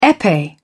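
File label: "epee", English, British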